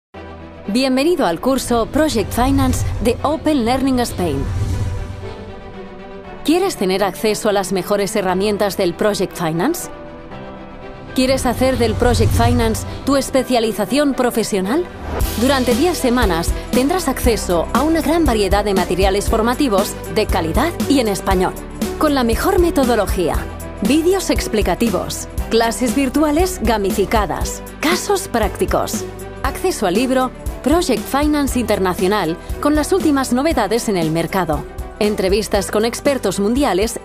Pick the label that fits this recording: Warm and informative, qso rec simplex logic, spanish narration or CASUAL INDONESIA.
spanish narration